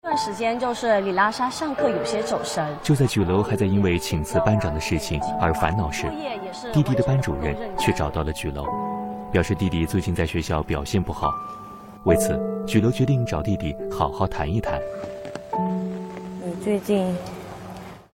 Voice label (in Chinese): 男 片花 少儿频道 解说; CCTV少儿频道小鬼当家